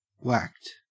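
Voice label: "whacked" English, Australian; pronunciations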